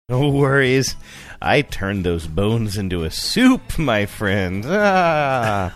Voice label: saying no worries i turned those bones laughing funny spooky